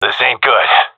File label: scared, seal diver; vo; agents; Source Model Packs; Counter Strike Model Pack; CS2